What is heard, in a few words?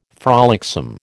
"frolicsome", American